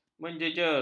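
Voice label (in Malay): "menjejer", Malay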